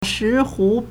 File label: "shi2hu3", Chinese